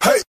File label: hey, group of men